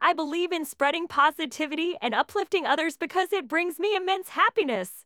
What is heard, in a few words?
Female Happy; TTS Overview